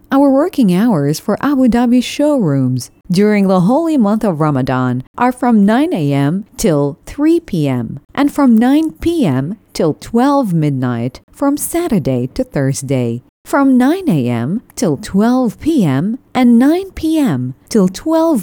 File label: Abu Dhabi Timing English